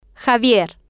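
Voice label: "xavier", female, Spanish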